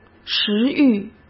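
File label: "食欲", Mandarin Chinese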